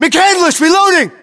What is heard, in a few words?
US inform reloading, battlechatter, english